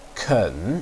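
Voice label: "ken3", Mandarin Chinese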